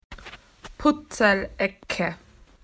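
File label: "puzzle corner", Australian English; PictureDictionary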